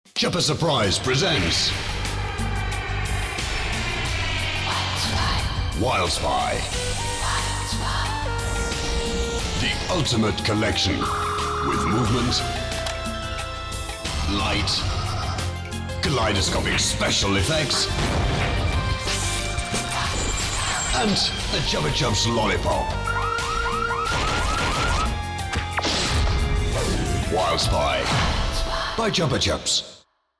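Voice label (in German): British englisch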